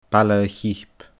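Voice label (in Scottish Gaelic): "Baile a Chip", Scottish Gaelic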